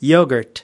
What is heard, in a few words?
"yogurt", us